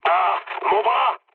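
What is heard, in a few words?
damaged pilot, mood low, French; War Thunder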